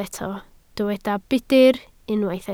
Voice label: "budr", Welsh